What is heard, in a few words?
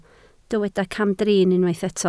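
"camdrin" Welsh, spoken word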